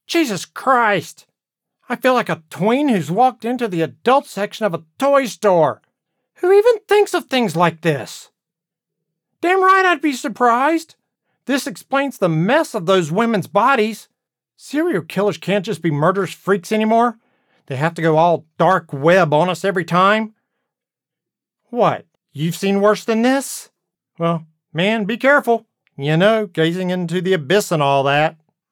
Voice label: Animation Character Shocking